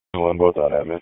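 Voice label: EMS Dispatch; wake; p25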